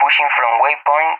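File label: "pushing from waypoint", English, Spain; Messages; DCS; Allied Flight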